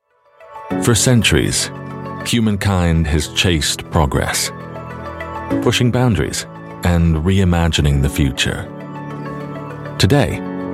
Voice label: midatlantic voice corporate